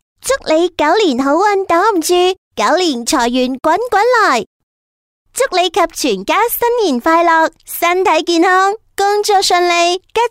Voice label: 粤女 祝福语

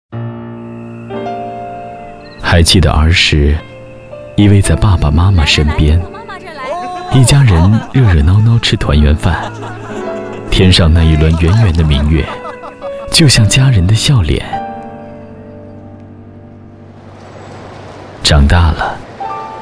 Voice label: 电台包装 自然深情 中秋和家人一起过节吧, 国语男声配音

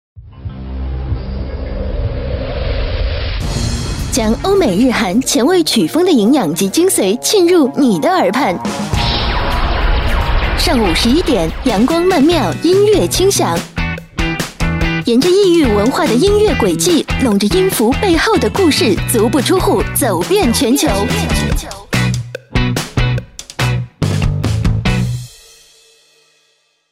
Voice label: 女 音乐电台片头

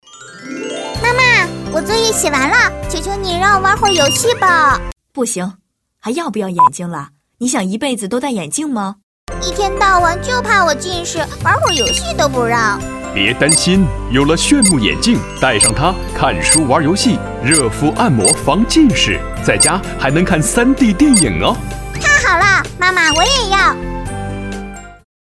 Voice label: 女 角色模仿 女儿角色, 国语女声配音

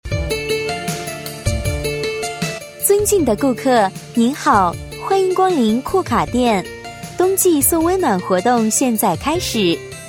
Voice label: 女 促销广告 商场广播 温柔版叫卖, 国语女声配音

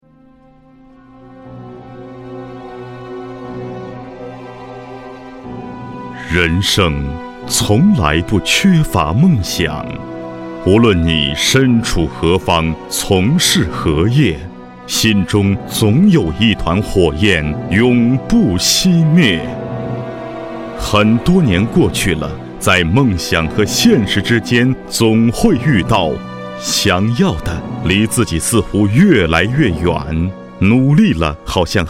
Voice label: 男 品牌广告 宣传片; 2014安利年会宣传片

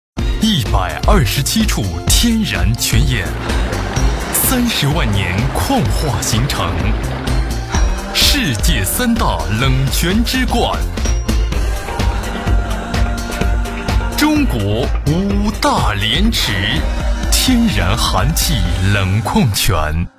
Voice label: 男 广告, 国语男声配音, 青春时尚; JSBC五大连池矿泉

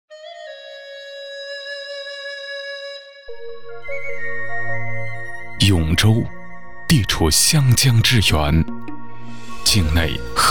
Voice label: 男 专题 水利; 永州水利

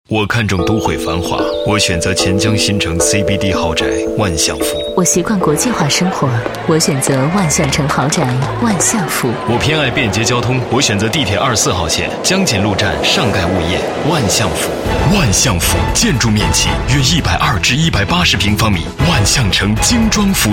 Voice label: 女 广告 豪宅 男女合配, 国语女声配音; 优雅配音; 万象府

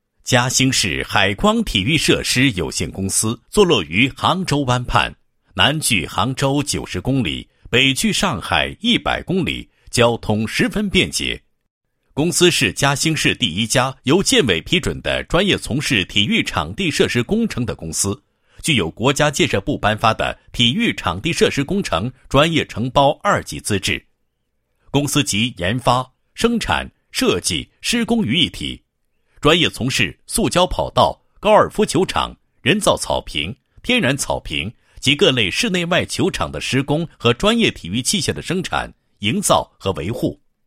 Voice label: 男-企业宣传; 嘉兴市海光体育设施有限公司

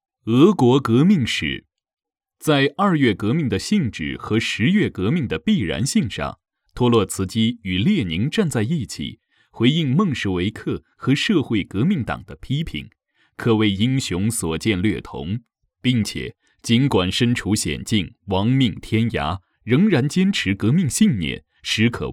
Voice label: 讲述 出版物 叙述历史 哲学类; 拜占庭

